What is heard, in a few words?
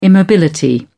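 "immobility", British English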